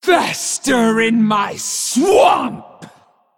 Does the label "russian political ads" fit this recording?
No